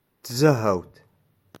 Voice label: "Tzahaout", Touareg